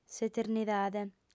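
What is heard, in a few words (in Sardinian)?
"s'eternidade", female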